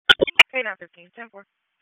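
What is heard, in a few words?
Dispatch, p25; WSO DISP 1; wake p25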